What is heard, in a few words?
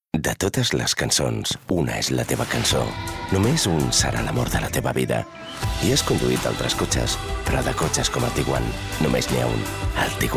male catalan car comercial; ideasonora